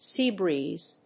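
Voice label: "Sea Breaze", English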